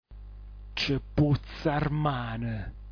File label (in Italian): "cpuzzaarman", dialetto giulianova